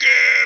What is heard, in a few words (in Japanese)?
left Face Turn, jp; marchingOrders